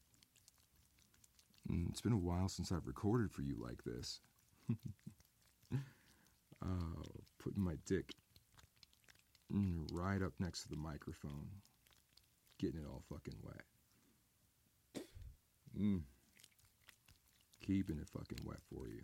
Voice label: Wet Sounds [M4M], Dirty Talk; Masturbation; Braeking out the Cock Mic; Close Up; Stroking Sounds; Feel-Good Filth